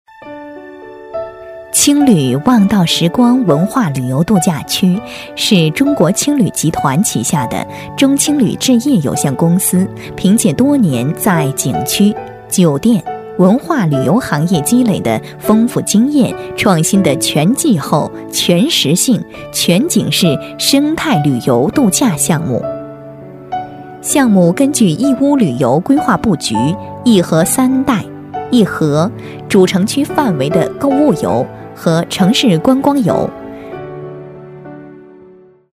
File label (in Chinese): 女, 宣传片配音, 旅游宣传, 叙述抒情; 温柔知性